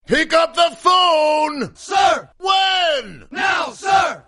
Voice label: Pick Up The Phone, ringtone